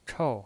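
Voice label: "chou", Mandarin Chinese pinyin voice, fourth tone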